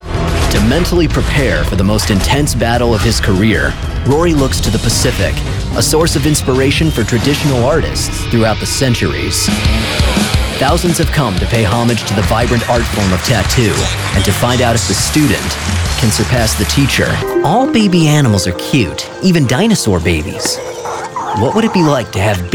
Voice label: TV Narration